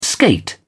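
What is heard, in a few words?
"skate", British English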